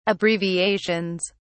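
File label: "abbreviations", British English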